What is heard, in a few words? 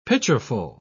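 "pitcherful", British English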